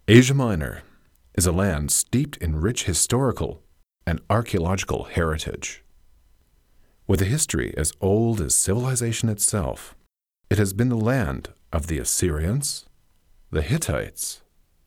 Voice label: documentary